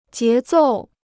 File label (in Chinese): "节奏", Chinese